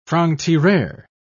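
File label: "franc-tireur", English, British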